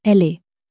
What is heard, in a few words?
"elle est", French